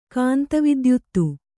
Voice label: "ಕಾಂತವಿದ್ಯುತ್ತು", Kannada

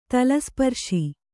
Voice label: "ತಲಸ್ಪರ್ಶಿ", Kannada

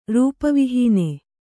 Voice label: "ರೂಪವಿಹೀನೆ", Kannada

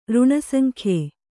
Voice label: "ಋಣಸಂಖ್ಯೆ", Kannada

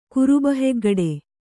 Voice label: "ಕುರುಬಹೆಗ್ಗಡೆ", Kannada

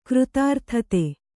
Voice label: "ಕೃತಾರ್ಥತೆ", Kannada

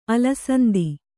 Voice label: "ಅಲಸಂದಿ", Kannada

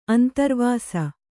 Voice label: "ಅಂತರ್ವಾಸ", Kannada

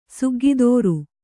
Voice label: "ಸಗ್ಗದುರು", Kannada

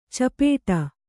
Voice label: "ಚಿಪ್ಪಡು", Kannada

